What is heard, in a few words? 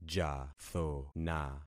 "jathona", concat syllables; Affixing experiment